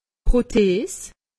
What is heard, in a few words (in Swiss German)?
"prothes", alsace; medecine